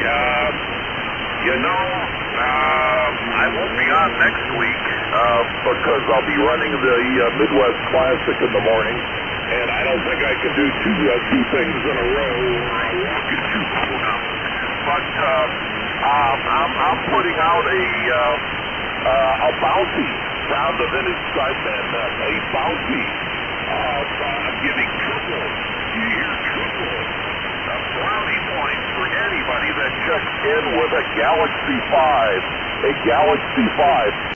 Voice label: Yaesu FT-101ZD & Dentron Clipperton Amp; Delavan,Wi.

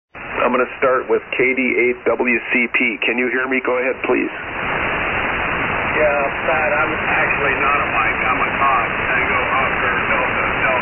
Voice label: Kenwood TS-520 TL-922 Amp & MC-50 Mic; Lima, OH

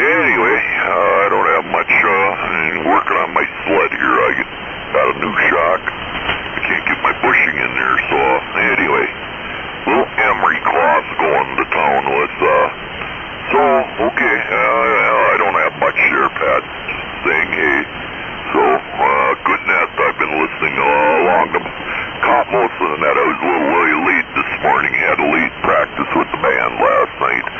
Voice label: Yaesu FTDX-560 & Shure 444 Mic; Algoma, Wi.

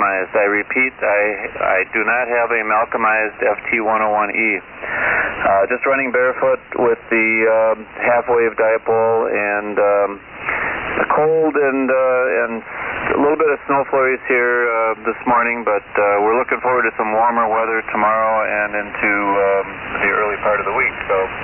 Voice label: Yaesu FT-101E Shure 444 Mic; Sussex, Wi.

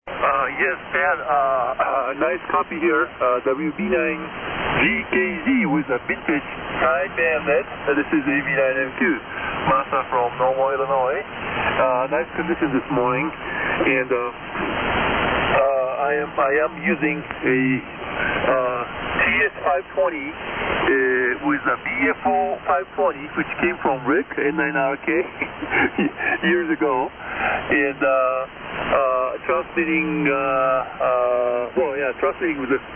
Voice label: Kenwood T520 MC-50 Mic & Kenwood TL-922A; Normal, IL.

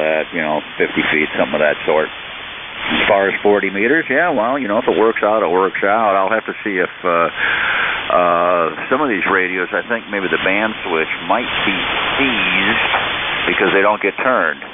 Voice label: Kenwood TS-530; Oregon, WI

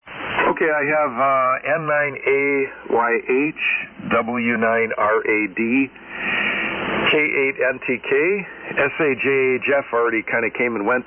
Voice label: SSB Net Check Ins

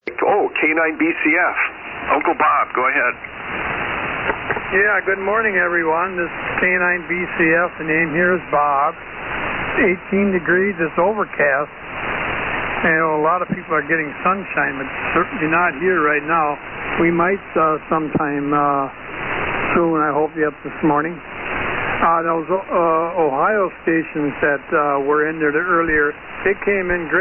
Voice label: Yaesu FT-101EE & Yaesu Mic; Greenleaf, Wi.

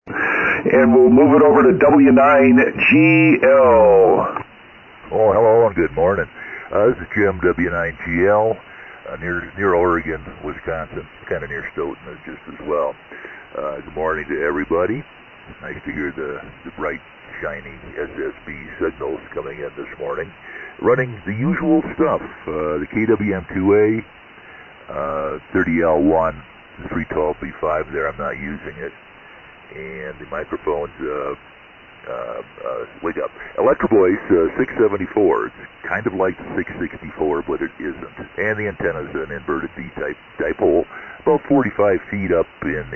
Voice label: Collins KWM-2A - 30L-1 Amp & EV 674 Mic; Oregon,Wi.

